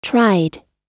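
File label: "tried", US English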